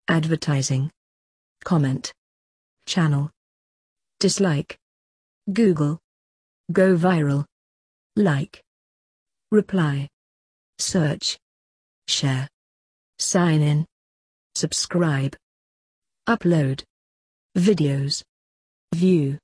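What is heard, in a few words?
British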